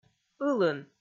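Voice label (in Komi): "ылын", Komi, female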